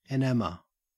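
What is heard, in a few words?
"enamour", Australian English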